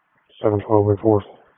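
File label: Police Dispatch; Wake Forest Police Disp; p25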